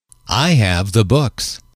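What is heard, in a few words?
"I have the books", English, male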